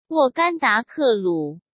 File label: sent zh-CN